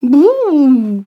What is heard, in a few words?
voice female critical